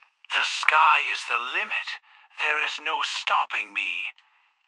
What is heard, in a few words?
happy; fertig classic games; fireball